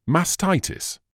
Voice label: "mastitis", English

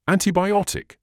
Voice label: "antibiotic", medical english uk; ˌæn.tiː.baɪˈɒt.ɪk; an-tee-bigh-O-tik

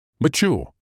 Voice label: "mature", English

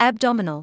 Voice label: "abdominal", English

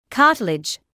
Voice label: "cartilage", medical english; ˈkɑː.təl.ɪdʒ/ KAH-tuhl-ij

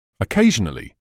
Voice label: "occasionally", English

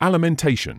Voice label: "alimentation", medical english uk; al-i-men-TAY-shuhn; ˌæl.ɪ.menˈteɪ.ʃən

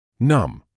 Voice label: "numb", English

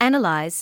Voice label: "analyse", English

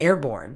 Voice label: "airborne", Medical English; ˈeə.bɔːn

